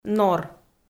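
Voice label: "нор", Komi-Zyrian, female; audio dict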